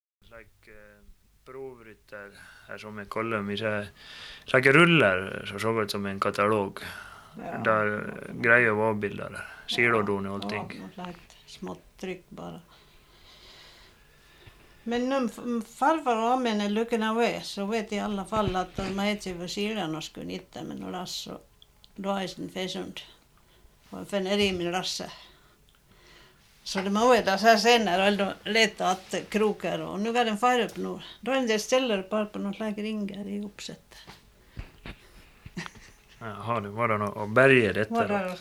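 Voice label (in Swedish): Mora Dalarna, Svenska sagor, dialekter; Folkminnen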